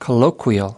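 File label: "colloquial", US English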